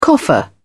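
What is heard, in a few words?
"coffer", British English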